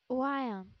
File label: "lion", English